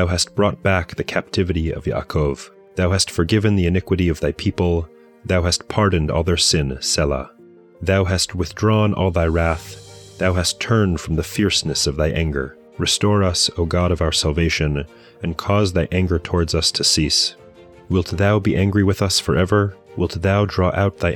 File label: poetry and prayer psalm english; Meir Soloveichik